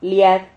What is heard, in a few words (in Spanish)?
"liad", Silabas